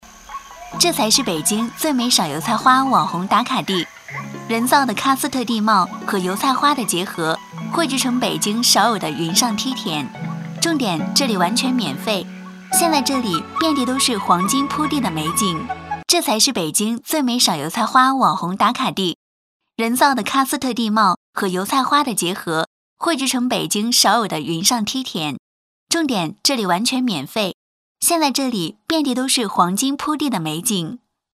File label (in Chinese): 短视频配音; 网红打卡地; 素人音; 新媒体配音; 房琪风格